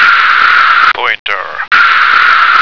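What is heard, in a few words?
"pointer", American English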